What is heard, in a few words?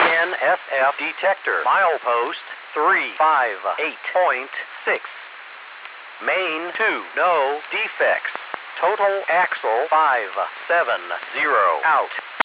defect detector